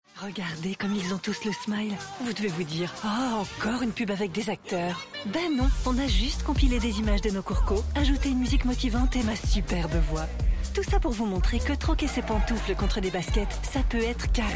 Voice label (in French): Spot TV; Les Mills